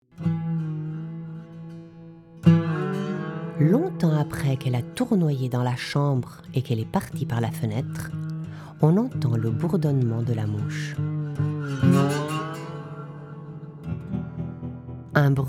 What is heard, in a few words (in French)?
interlude francais et arabe